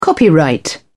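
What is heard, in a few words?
"copyright", British English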